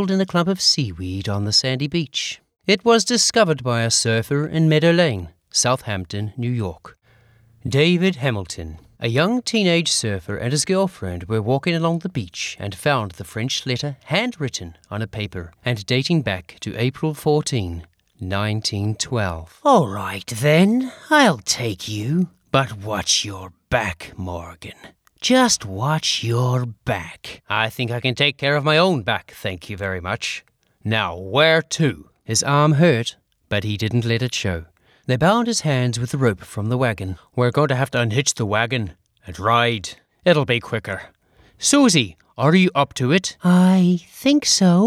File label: Audiobook